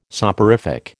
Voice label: "soporific", American

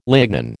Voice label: "lignin", American

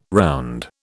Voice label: "round", British

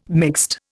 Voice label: "mixed", Indian

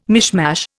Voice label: "mishmash", Indian

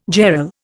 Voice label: "jarl", Indian

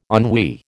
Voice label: "ennui", American

